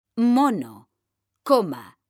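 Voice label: "mono coma", Spanish, female; mekanta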